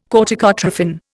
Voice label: "corticotrophin", Indian

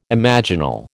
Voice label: "imaginal", American

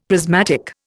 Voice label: "prismatic", Indian; Dictionary

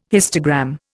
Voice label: "histogram", Indian

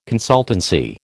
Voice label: "consultancy", American

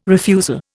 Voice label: "refusal", Indian

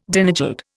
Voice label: "Danegeld", Indian

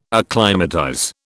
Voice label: "acclimatize", British

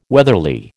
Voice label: "weatherly", American

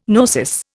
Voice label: "gnosis", Indian

